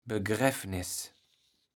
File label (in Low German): "Begräffniß", kaevels platt